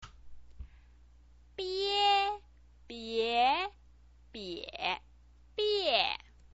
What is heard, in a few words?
"bie", chinese pinyin